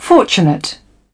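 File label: "fortunate", British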